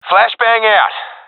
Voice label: throwing flashbang, professional epic; vo; Source Model Packs; Counter Strike Model Pack; CS2